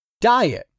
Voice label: "DIET", english; 3000 words

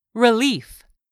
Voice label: "RELIEF", English; 3000 words

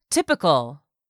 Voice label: "TYPICAL", English; 3000 words

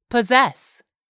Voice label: "POSSESS", English; 3000 words

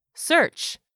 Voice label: "SEARCH", english; 3000 words

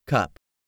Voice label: "CUP", English; 3000 words

